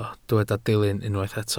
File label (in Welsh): "dilyn" Welsh